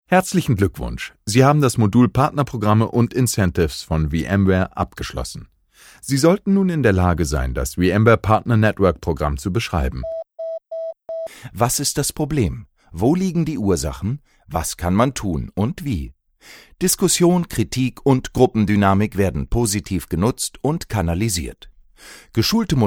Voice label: e learn mix